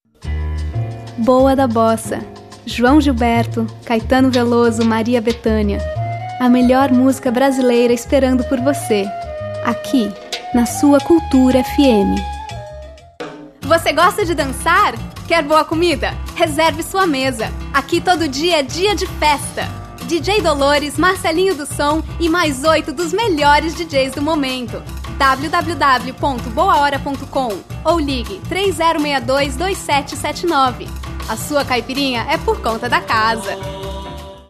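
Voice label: Brazilian; Portuguese-Brazilian, Male, Home Studio, 30s-50s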